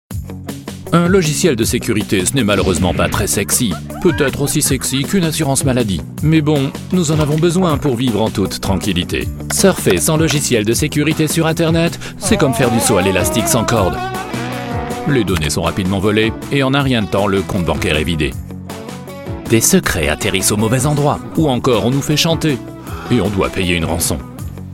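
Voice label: French, Dynamisch; Fremdtake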